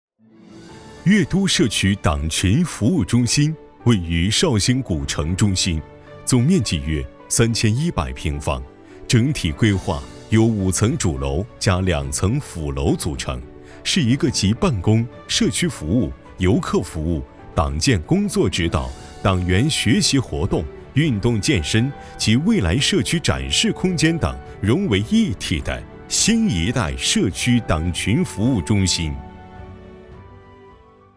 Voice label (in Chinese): 男,党建; 越都社区党群服务中心